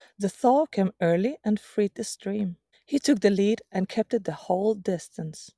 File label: clean speech reference